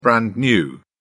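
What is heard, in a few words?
"brand new", British English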